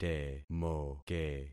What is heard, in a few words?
"temoke", concat syllables; Affixing experiment